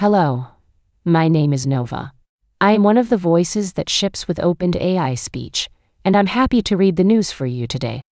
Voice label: news, speech; dtp-newsroom; nova